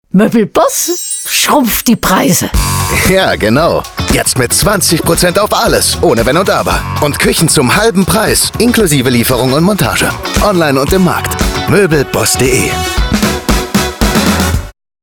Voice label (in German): Werbung, Werbespot, Commercial (Werbung), German; SB Möbel BOSS